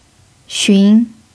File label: "xun2", Chinese